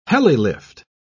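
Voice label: "helilift", British English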